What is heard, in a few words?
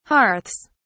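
"hearths", British English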